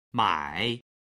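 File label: "mai3", Chinese, male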